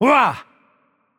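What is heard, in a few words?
Turkish attack heavy voice; bloom minigame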